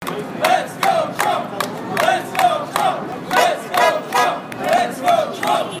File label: yelling; Trump